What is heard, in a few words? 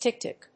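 "ticktock", English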